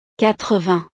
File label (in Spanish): "quatre-vingts", frances